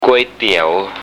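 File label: "kuai tiau", noodle soup, Thai; Thai Worte mit Sound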